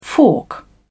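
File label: "fork", British English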